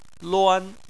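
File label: "luan" first tone, Chinese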